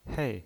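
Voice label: "hei", Mandarin Chinese, fourth tone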